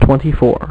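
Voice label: "twenty-four", male; MacCribbage; InterStellar MacCribbage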